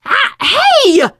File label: friendly fire, teen girl voice; source engine; left 4 dead 2; survivor